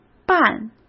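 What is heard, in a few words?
"扮", Mandarin Chinese; hsk; chinese-flashcards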